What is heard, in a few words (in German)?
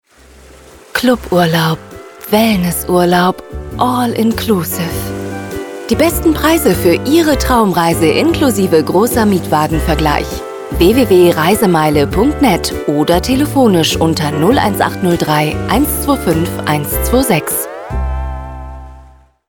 Radiospot; Reisemeile